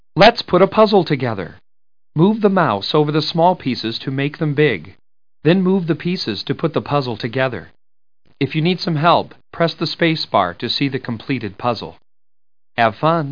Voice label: intro Voice